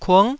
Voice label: "kung9", Taiwanese syllable